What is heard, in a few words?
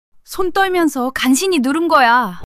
fluster